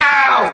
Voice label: ow male